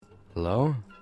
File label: louder hello